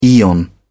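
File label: "aeon", British English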